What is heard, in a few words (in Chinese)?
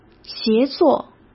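"协作", Mandarin Chinese; hsk; chinese-flashcards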